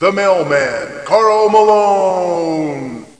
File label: athlete announcement; Utah Jazz Collector's Series - Karl Malone